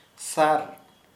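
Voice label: Santali male arrow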